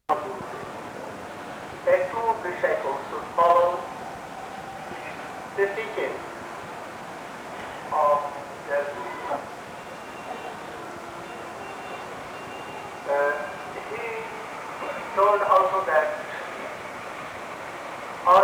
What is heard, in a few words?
Hindi English; KL Bhakti Prajnana Kesava Gosvami; Srimati Radhika's glories